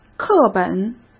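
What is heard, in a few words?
"课本", Mandarin Chinese; chinese-flashcards; hsk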